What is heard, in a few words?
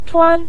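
"chuan" Mandarin Chinese, neutral tone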